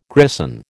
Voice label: "grison", American